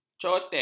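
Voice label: "cotet", Malay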